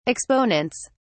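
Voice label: "exponents", English, British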